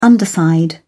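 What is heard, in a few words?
"underside", English, British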